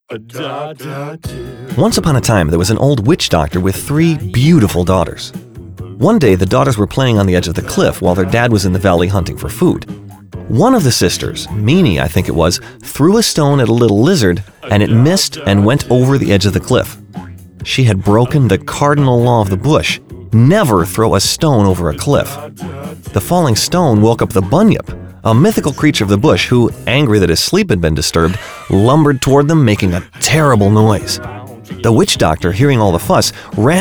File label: Audiobook